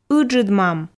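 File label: "ыджыд мам", Komi, female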